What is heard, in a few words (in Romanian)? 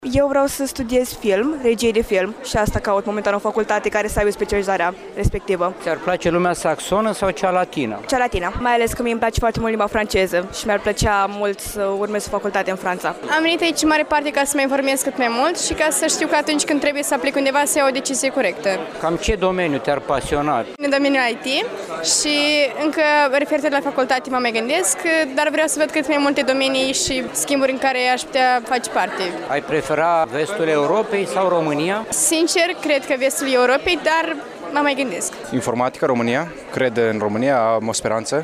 vox pop; RIUF; la iasi se desfasoara targul international de universitati riuf 2019